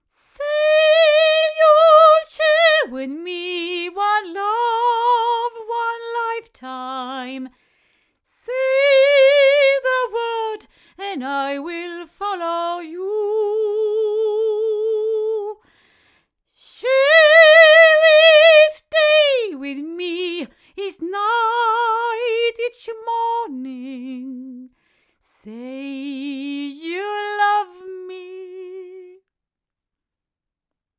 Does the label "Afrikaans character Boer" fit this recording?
No